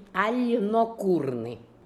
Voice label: "Alnokoorian", Beelzebub pronounciations; Fourth Way; RUS